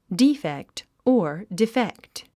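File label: "defect", English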